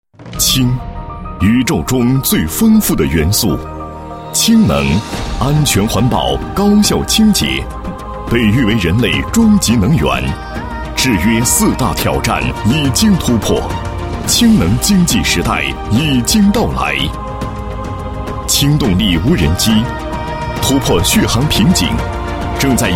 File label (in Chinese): 男80 公司企业 氢能源宣传介绍, 国语男声配音; 央视农广